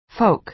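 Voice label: "folk" American English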